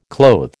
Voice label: "clothe", American